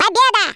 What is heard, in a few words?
victory, Russian